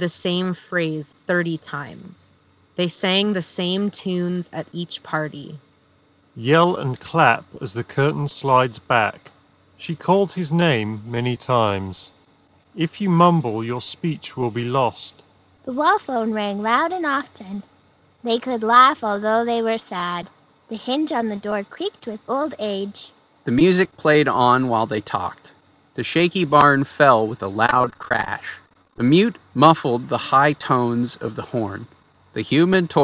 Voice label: Marshall Minor III Wind microphone sample